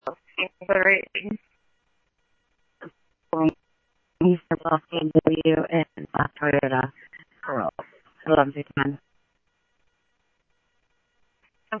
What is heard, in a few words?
Police, scanner audio trunk; H-Scottsdale